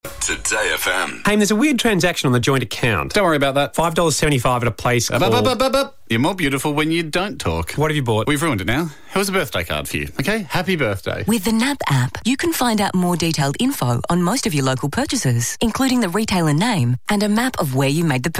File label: Voiced Commercial; NAB Mobile App; Hamish and Andy